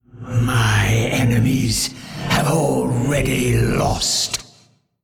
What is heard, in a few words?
Male Old God Bark